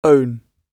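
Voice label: "own", British English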